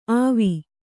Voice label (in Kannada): "ಅವು", Kannada